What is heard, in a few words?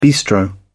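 "bistro", British English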